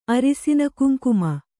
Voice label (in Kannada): "ಅರಿಸಿನಕುಂಕುಮ", Kannada